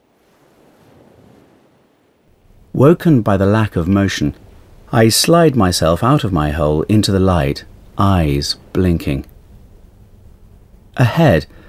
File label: audiobook; DOLPHIN AUDIOBOOK